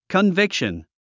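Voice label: "conviction", English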